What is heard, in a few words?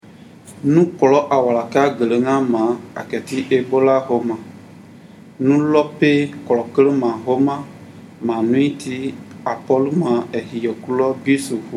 guerze; ebola; radio-spots; vhf